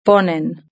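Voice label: "ponen" Spanish; verb-conjugator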